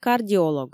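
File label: "кардиолог", Komi, female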